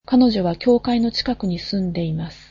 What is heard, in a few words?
she lives near the church, Japanese